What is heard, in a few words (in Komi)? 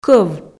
"кӧв" Komi